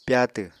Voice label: "pyatw", Apatani

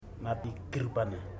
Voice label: twenty, Ipili

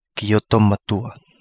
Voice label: Kapingamarangi; canoe main Boom

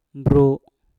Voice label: "bru", Mpur; tenggelam

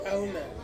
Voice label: "au", you, Ansus

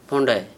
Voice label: "pondaj", Gta, Dialect: Hill; hide; pelt; leather

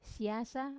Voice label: "siasa" sour, Wamesa

